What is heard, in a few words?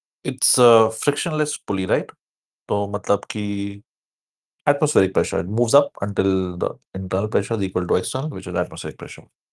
voice message